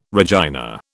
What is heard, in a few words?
"Regina", British